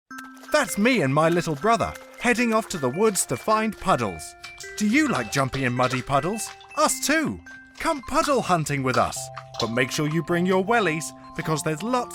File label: Narration; Puddles Muddles Cuddles